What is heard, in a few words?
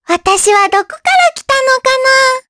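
vox dia Japanese; Luna; kingsraid; jp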